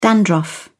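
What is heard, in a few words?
"dandruff", British English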